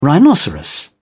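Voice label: "rhinoceros", British English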